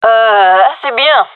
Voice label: compliment, fem; vo